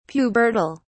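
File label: "pubertal", British English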